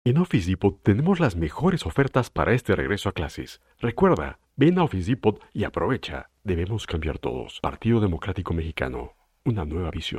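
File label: comercial, Mexican Spanish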